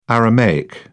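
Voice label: "aramaic", British English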